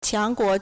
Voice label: "qiang2 guo2", Chinese

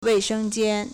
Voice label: "wei4sheng1jian1", Chinese

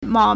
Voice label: "mou3", Chinese

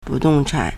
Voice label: "bu4dong4chan3", Chinese

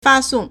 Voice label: "fa1song4", Chinese

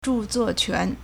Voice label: "zhu4 zuo4 quan2", Chinese

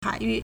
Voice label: "hai3yu4", Chinese

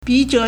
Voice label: "bi3zhe3", Chinese

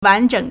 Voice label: "wan2zheng3", Chinese

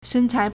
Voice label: "shen1cai2", Chinese

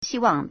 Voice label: "xi1wang4", Chinese

